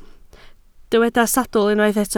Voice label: "sawdl", Welsh

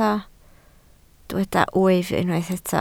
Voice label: "wyf", Welsh

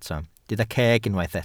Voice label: "ceg", Welsh

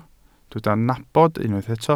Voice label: "nabod", Welsh

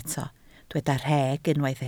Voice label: "rheg", Welsh

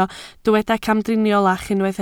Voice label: "camdriniolach", Welsh

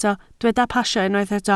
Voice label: "pasio", Welsh

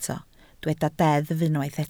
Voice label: "deddf", Welsh

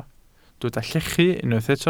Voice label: "llechu", Welsh

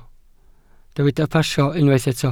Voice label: "pasio", Welsh

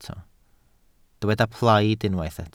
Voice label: "plaid", Welsh

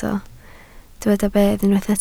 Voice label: "bedd", Welsh

